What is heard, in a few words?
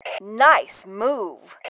NICE, FEM